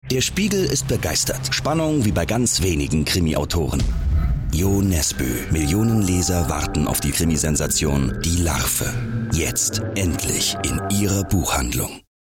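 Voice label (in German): werbung hörbuch spot; nesboe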